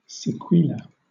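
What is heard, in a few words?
"sequela", British English; pronunciations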